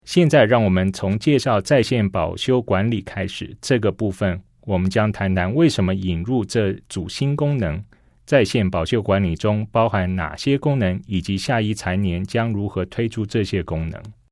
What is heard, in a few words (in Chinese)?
CHINESE